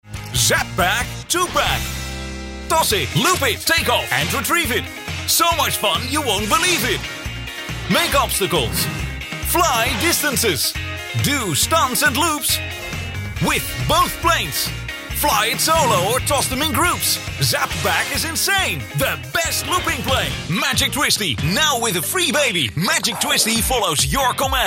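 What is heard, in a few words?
English UK, female, excited; Aufgeregt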